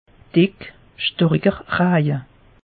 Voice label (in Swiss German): "dick", alsace; meteo